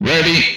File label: "Ready", Speech; retro arcade